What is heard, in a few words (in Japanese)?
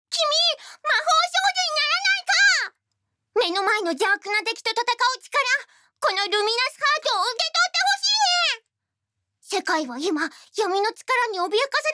マスコットキャラクター; Mascot